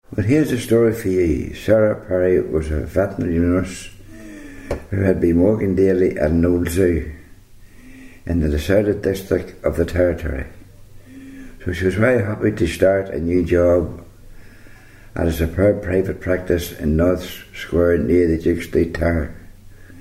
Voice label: passage, dialects ni